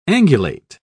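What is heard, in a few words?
"angulate", British English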